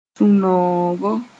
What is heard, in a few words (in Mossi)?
"sũ-noogo", Moore; happiness